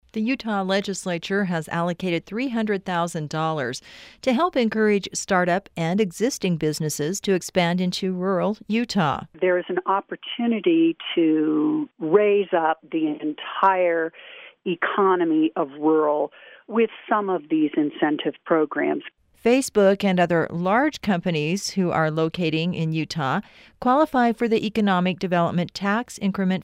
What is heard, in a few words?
tax credit narration